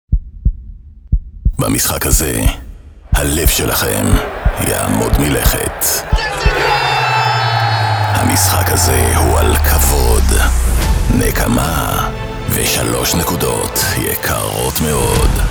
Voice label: Voice Sample - Radio - Football; Radio Haifa